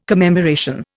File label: "commemoration", Indian